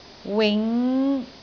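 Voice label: "wing5", Cantonese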